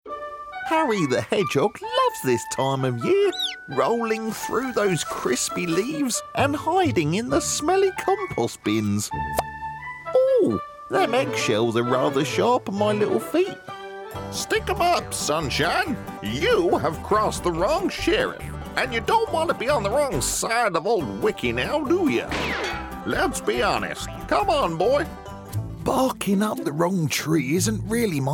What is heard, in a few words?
Animation